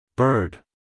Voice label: "bird", US, male